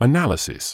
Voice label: "analysis", medical english uk